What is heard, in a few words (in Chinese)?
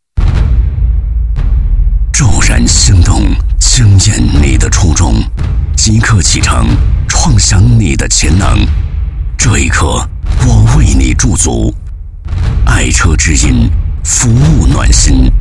男 广告 汽车; 野马汽车